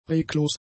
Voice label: "reglos", German; adjectives